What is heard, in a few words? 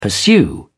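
"pursue", British English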